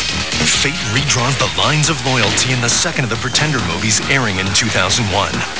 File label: narrator